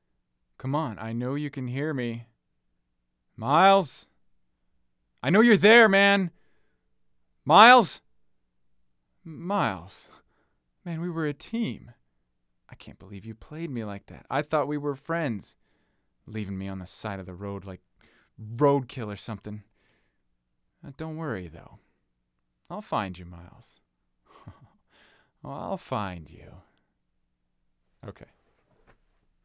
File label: Phone Call; Broken Road ADR